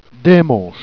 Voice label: "dinmanche", Jèrriais